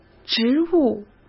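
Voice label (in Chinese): "植物" Mandarin Chinese; hsk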